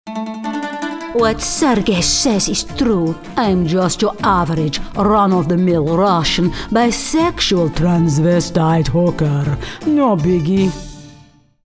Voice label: RUSSIAN